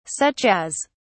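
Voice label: "such as", British English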